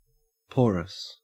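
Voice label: "porous", Australian English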